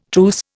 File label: "truce", Indian